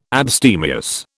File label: "abstemious", British